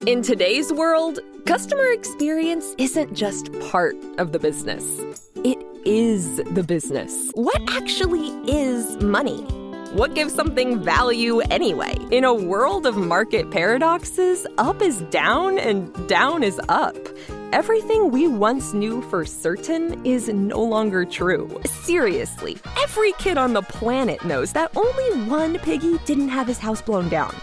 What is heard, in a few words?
explainer